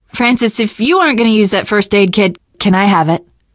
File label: player suggest health specific, survivor voice teen girl